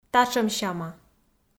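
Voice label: "татшӧмсяма", Komi, female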